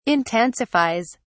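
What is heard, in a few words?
"intensifies", British English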